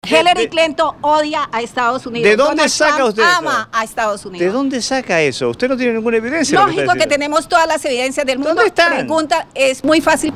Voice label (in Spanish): Mujer latina; Hillary odia USA